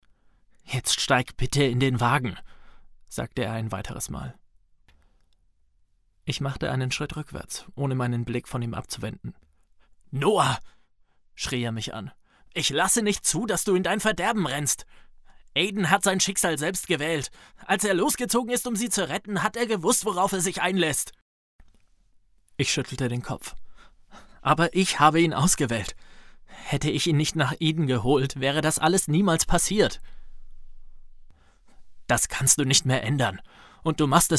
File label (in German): Hörbuch; Vampirya 3